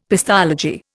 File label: "pestology", Indian; Dictionary